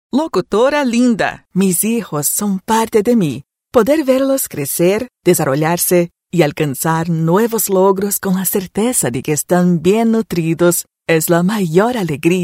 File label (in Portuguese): Brasileiros Espanhol